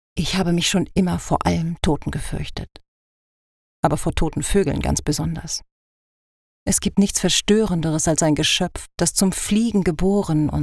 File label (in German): Hoerbuch; Zugvoegel